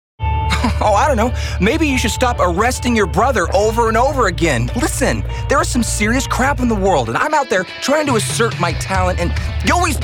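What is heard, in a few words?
US English, Mix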